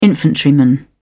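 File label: "infantryman", British English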